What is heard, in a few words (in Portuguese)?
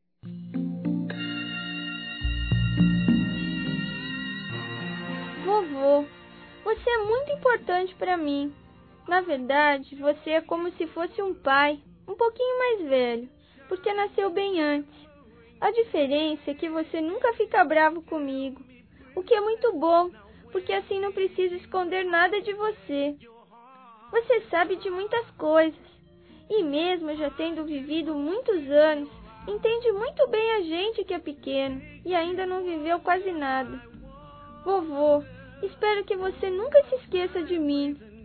Aniversário Avô Infantil Masculino; Telemensagens 2017; Aniversários